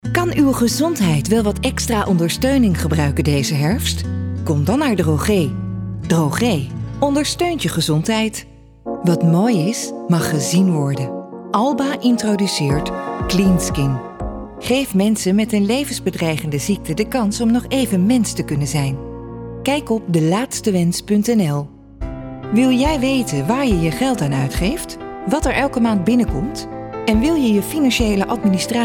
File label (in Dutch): commercials